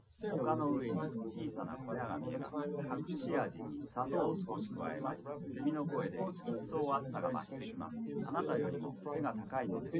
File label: male liverec 250ms 5cm; SiSEC08; SiSEC underdetermined